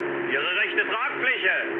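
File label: German right wing mood med, speech; War Thunder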